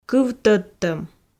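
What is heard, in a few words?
"кыв тӧдтӧм", Komi, female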